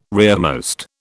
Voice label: "rearmost", British